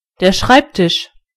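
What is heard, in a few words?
desk, German voices words; gcompris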